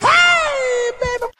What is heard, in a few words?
"hey baby" male, voice; ooh ahh